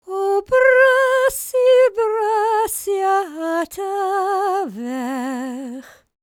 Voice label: WELSH F, World Voices